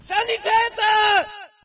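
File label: German medic; video games; player; source engine; dod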